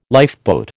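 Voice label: "lifeboat", American English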